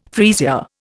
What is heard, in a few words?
"freesia", Indian